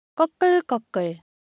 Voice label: Korean, Ideo Phones; Texture